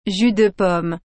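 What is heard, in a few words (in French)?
"jus de pomme", French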